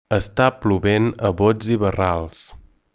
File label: "rain", Catalan